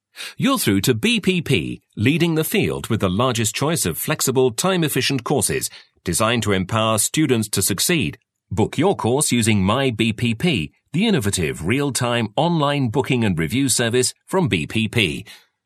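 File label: British English tele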